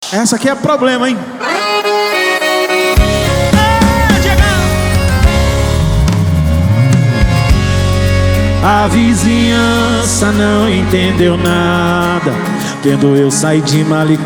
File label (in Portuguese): locutor a voz magnifica